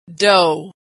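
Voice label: "dough", American English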